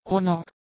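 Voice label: "h3nogwh", Proto-Indo-European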